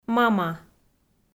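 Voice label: "мама", Komi, female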